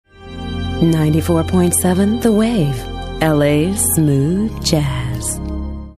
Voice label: smooth

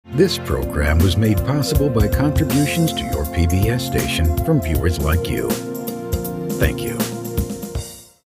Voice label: imaging

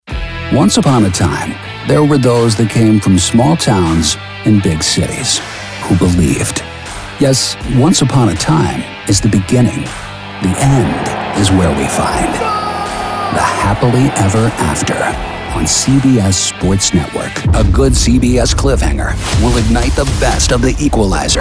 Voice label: Promo

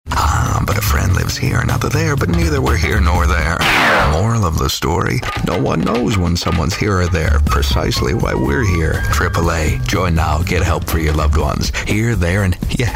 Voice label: anti announcer

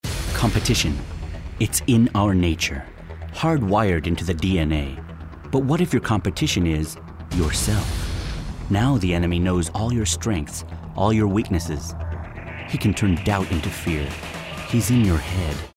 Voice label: tough and gritty

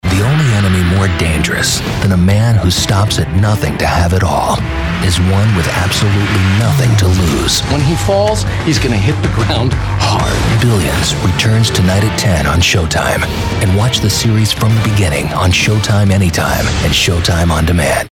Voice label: TV Promo; Showtime

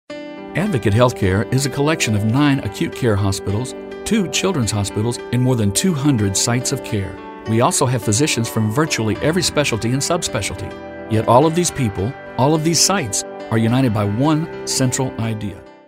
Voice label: caring

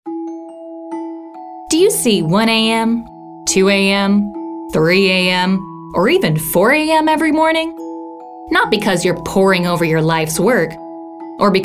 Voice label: Soothing

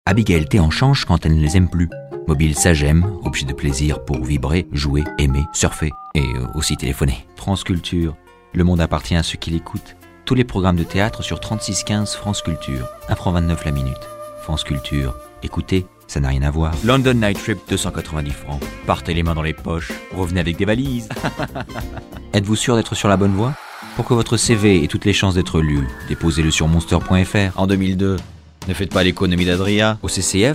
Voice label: French Commercials

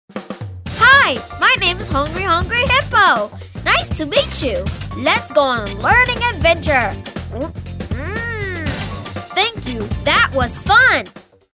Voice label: Kid Next Door High Energy

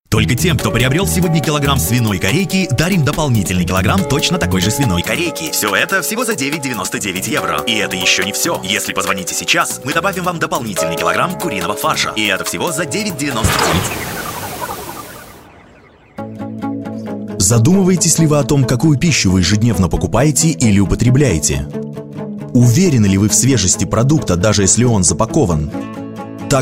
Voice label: Commercial (RUSSIAN)

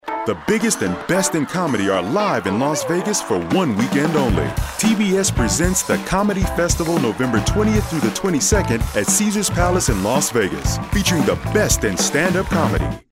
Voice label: upbeat

